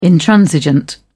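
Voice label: "intransigent", English, uk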